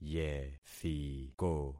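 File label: "yethiko", concatenated syllables; Affixing experiment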